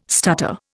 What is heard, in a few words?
"stutter", Indian